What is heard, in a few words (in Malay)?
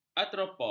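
"artropod", Malay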